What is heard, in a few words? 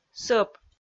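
"сӧп", Komi, female; audio dict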